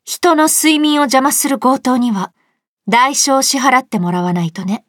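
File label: start, Japanese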